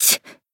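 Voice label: Voice damaged, Japanese; minalca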